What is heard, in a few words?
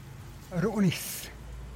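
"ruu nis", Teotitlán del Valle Zapotec; beach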